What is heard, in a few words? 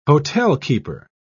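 "hotelkeeper", British English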